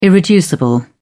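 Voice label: "irreducible", British English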